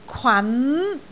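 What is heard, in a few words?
"kwan2", Cantonese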